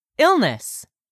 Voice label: "ILLNESS", english; 3000 words